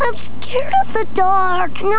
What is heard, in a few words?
scared; chuckie